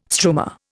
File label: "struma", Indian